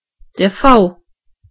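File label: peacock, German voices words; gcompris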